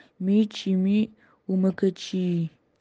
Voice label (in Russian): Нанайский